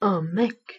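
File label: "un mec", French pronunciation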